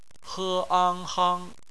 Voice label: "hang", Chinese; phonetics; bpmf

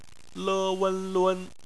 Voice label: "lun", Chinese; phonetics

